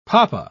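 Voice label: "poppa", British English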